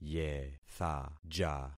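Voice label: "yethaja", concatenated syllables; Affixing experiment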